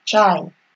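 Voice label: "chai", Nigerian Pidgin, female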